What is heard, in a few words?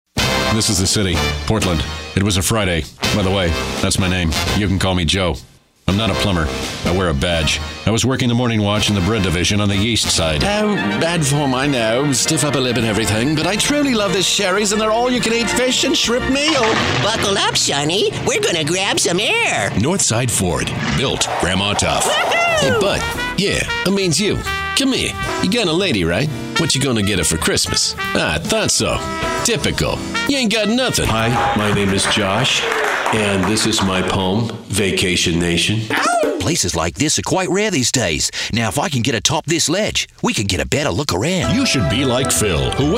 character